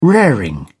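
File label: "raring", English, British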